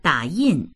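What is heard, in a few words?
"da3yin4", Chinese; 打印; 捺印、印刷（する）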